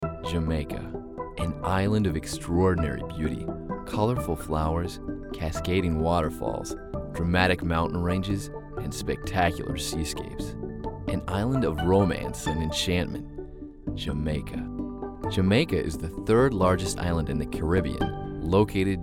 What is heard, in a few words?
Narration Travel